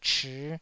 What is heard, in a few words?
"chii5", Taiwanese